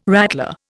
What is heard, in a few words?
"rattler", Indian